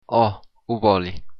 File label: yes i will, occitan